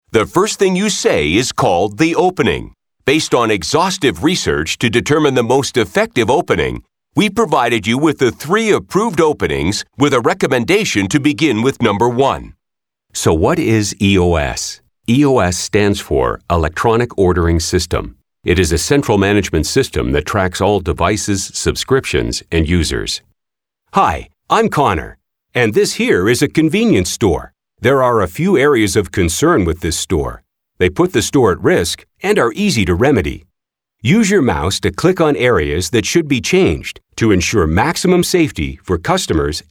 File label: e-learning voiceover